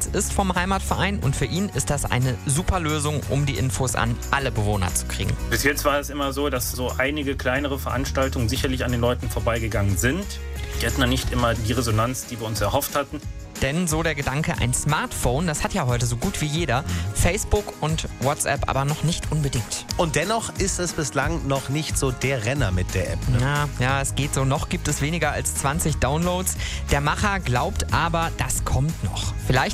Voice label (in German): mitschnitt radio; berg am morgen dorfapp